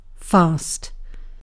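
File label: "fast", British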